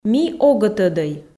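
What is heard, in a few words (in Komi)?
Komi, ми огӧ тӧдӧй, female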